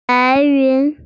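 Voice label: "white cloud", Mandarin; bai2yun2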